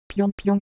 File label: Japanese, ideophones; Motion